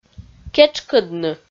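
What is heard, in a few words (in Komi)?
"кетшкӧдны", Komi, female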